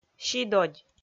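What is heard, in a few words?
"сидодь", Komi, female; kpv audio dict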